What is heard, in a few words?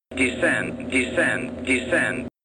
Male Descend Descend